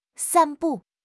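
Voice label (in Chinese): "散布", Chinese; HSK6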